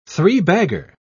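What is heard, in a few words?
"three-bagger", British English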